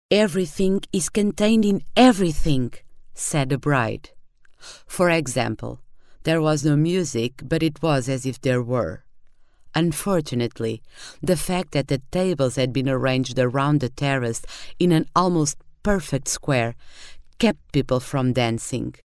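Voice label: Portuguese, female, English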